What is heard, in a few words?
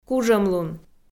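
"кужӧмлун", Komi, female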